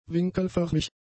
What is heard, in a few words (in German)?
"winkelförmig", German; adjectives